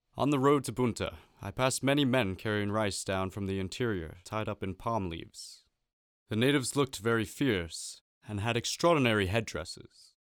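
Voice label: Breton voice over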